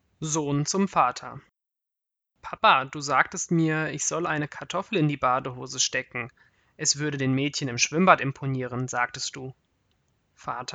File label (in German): Stimmprobe Deutsch